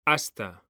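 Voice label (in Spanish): "hasta", Spanish, male; mekanta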